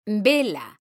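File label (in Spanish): "VELA", Spanish, female; mekanta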